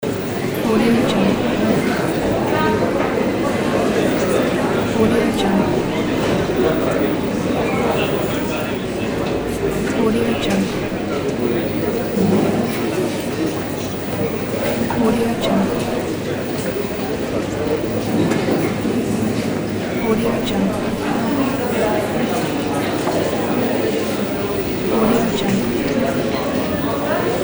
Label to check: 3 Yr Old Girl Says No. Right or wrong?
Wrong